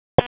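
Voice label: Police Southwest District Dispatch; Raleigh; wake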